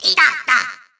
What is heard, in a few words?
Troll Taunt; Skylanders Cloud Patrol; Arcade, iOS, Mobile